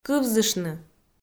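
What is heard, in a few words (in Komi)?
"кывзыштны", Komi, female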